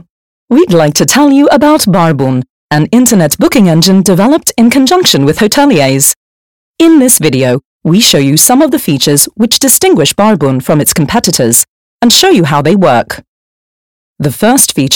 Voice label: Elearning

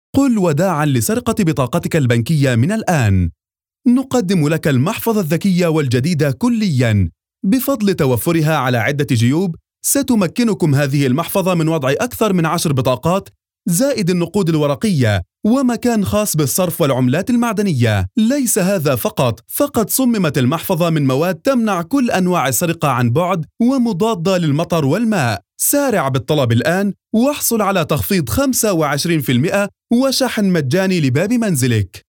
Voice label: Arabic Voice Over energetic

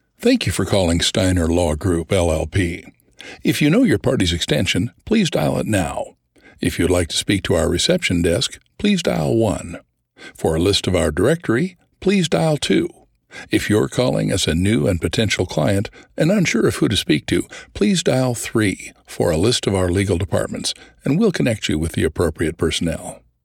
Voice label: English Voice Over

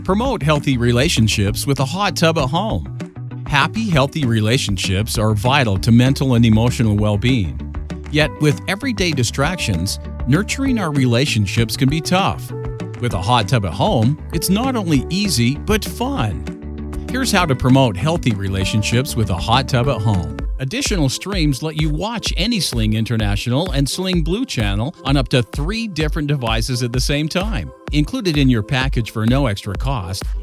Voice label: American English Voiceover

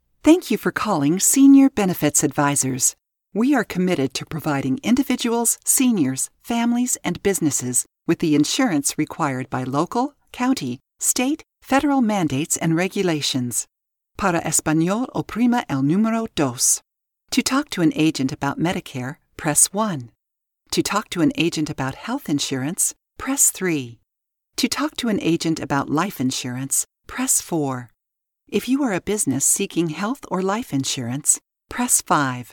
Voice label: English Voice Over

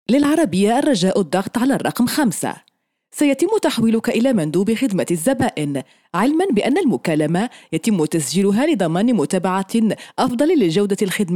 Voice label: Arabic voice over short introduction

